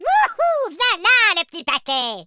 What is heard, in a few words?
collect, French speech